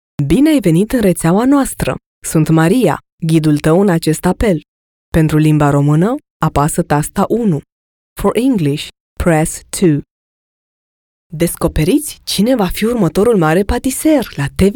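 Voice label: Romanian female; lektoring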